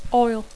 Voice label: "oil", English

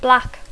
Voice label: "black", English

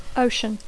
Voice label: "ocean", English

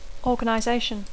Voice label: "organization", English

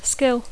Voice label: "skill", English

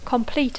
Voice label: "complete", English; adjectiu; enter; acabar

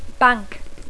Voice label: "bank", English

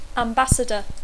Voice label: "ambassador", English

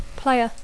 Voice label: "player", English

